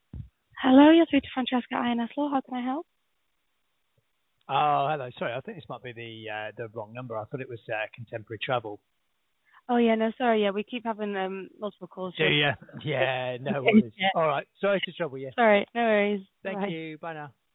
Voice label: call recording